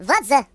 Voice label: What the, Russian; hedgewars